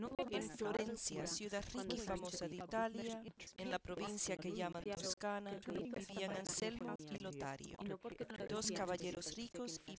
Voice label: female; SASSEC07

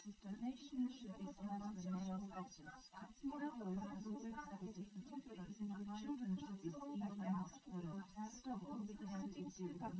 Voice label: female liverec; SiSEC11; underdetermined

